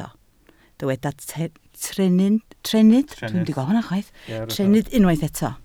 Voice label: "trennydd", Welsh

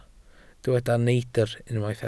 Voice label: "neidr", Welsh

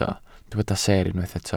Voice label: "seer", Welsh

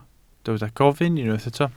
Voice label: "gofyn", Welsh

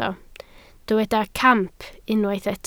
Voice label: "camp", Welsh

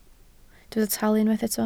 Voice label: "talu", Welsh

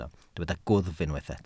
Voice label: "gwddf", Welsh

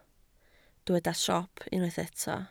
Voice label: "siop", Welsh

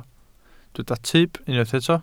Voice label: "tyb", Welsh

